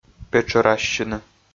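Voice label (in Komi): "петшӧрассьыны", Komi, male; audio dict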